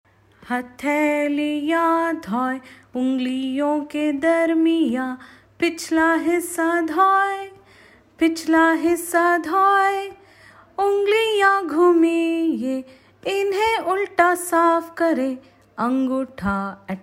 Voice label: Punjabi Pakistan Audio